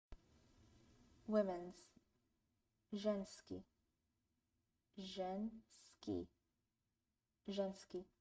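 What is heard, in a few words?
Women's, Bulgarian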